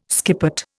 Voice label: "skippet", Indian